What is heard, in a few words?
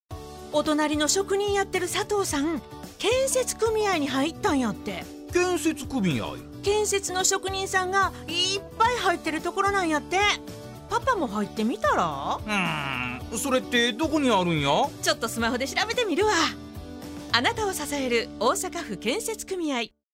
radio; osaka